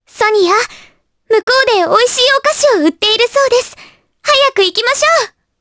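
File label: vox dia Japanese; Mirianne; kingsraid; jp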